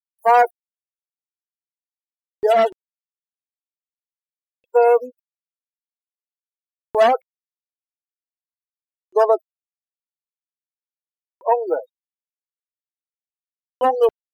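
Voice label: Bolton town, nonrhotic: fa(r) yea(r) se(r)vant wo(r)k brothe(r) olde(r) younge(r); birth 1890; rhoticity; accents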